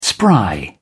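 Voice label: "spry", English, British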